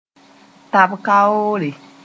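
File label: "tab-kaoore", Mooré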